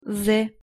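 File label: "з" Russian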